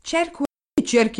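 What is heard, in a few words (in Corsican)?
"cercu cerchi", Gravona (sud); je cherche; tu cherches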